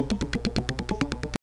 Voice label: labials front, romanian palatalization